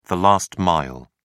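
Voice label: "last mile", British English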